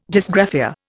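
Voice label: "dysgraphia", Indian English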